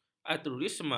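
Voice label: "altruisme", Malay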